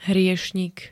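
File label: "hriešnik", Slovak; hriešny človek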